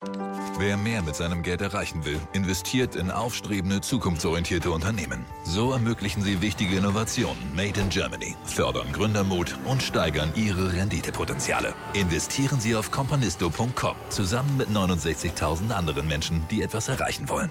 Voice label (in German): tv spot; companisto